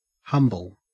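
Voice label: "humble", Australian English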